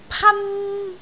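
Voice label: "pan1", Cantonese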